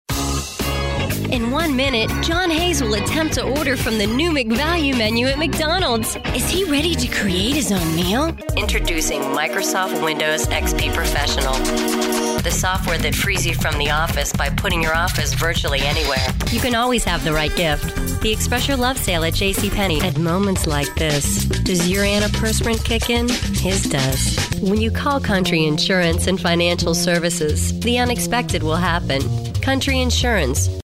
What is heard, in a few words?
comm, female voice talent